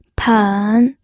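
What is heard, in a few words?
"pen", Mandarin Chinese, third tone; pinyin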